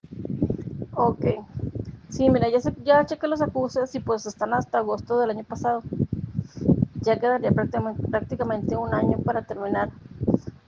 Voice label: whatsapp ptt